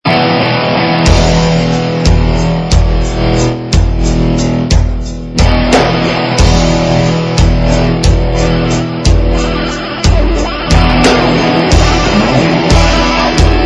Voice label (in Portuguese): Mensagem Amigo F